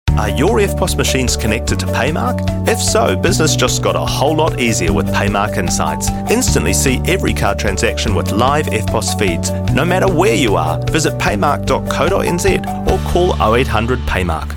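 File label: New Zealand English; PayMark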